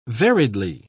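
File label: "variedly", British English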